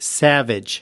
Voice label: "savage", us

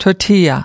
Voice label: "tortilla", us

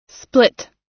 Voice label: "split", us

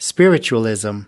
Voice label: "spiritualism", us

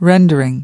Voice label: "rendering", us

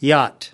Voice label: "yacht", us

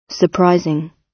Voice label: "surprising", gb

